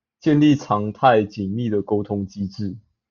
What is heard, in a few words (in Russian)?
Голоса, Тайваньский